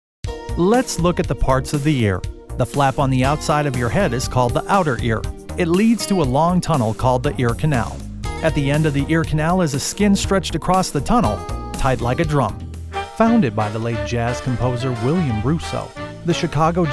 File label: Narration